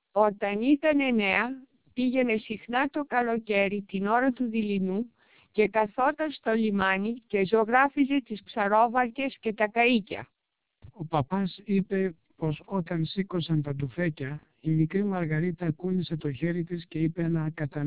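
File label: twelp 1600 Greek speech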